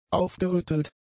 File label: "aufgerüttelt", German; adjectives